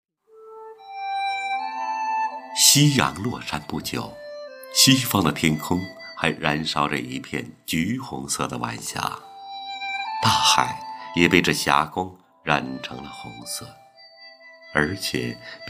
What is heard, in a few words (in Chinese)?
夕阳美文诵读